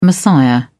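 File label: "messiah", English, British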